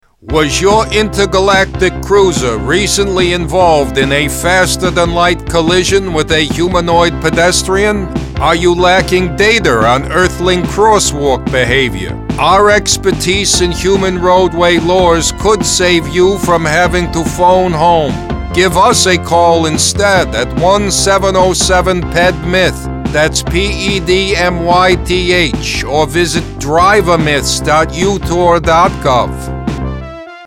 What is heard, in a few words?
Radio; PedestrianMyths; UFO